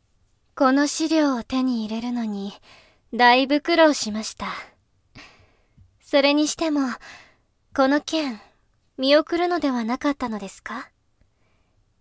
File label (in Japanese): 落ち着いた女性 情報屋 30歳; jtb-ent-voice